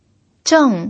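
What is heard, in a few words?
"zheng" Mandarin, fourth tone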